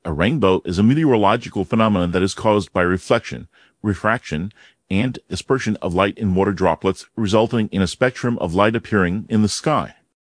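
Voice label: speaker, American English; piper-voices; en US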